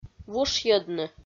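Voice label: "вушйӧдны", Komi, female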